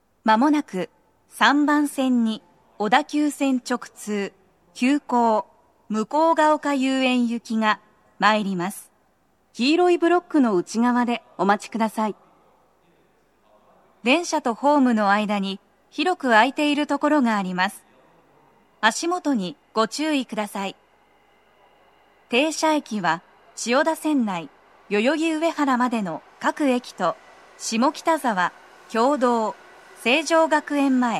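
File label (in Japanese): 接近放送 女声, 急行 向ヶ丘遊園行, 英語の停車駅案内も付帯していました; 放送は「急行 向ヶ丘遊園行」です。; tokyometro chiyodaline hibiya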